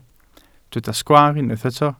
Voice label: "sgwaar", Welsh

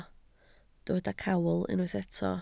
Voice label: "cawl", Welsh

